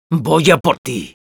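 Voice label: Spy taunts, Spanish; ¡Voy a por ti!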